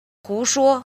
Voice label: "jin", Chinese